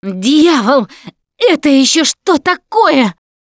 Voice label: heard tank, russian; Voice; Producer